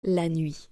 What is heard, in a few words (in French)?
"La nuit", French